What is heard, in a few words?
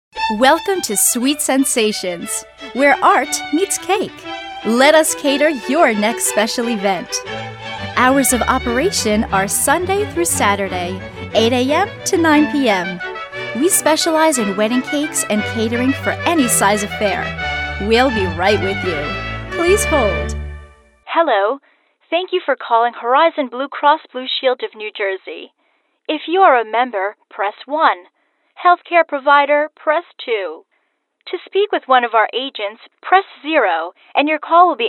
Telephony